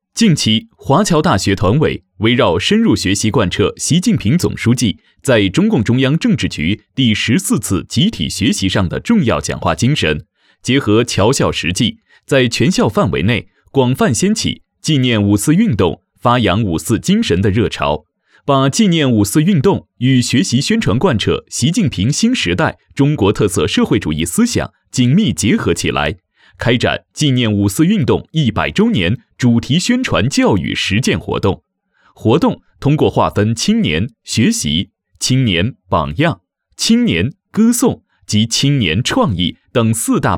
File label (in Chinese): 新闻, 干练播报; 华侨大学团委